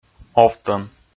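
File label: "овтӧм", Komi, male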